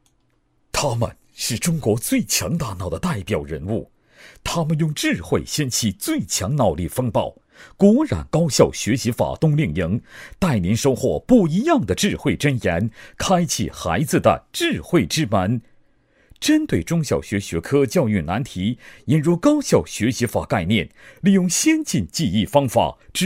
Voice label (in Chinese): 男, 宣传片 冬令营宣传片配音; 果冉教育